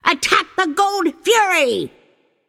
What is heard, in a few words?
vox attack; smite; babayaga